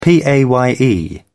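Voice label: "paye", British English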